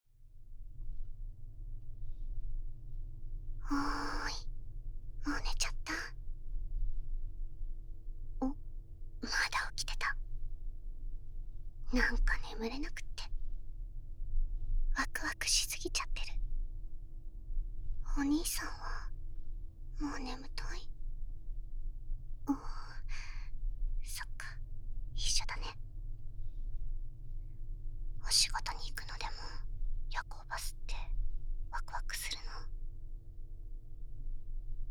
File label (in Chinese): 低語手淫; 夜行巴士